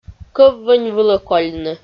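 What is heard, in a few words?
"кӧв-вӧнь вылӧ кольны", Komi-Zyrian, female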